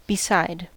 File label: "beside", us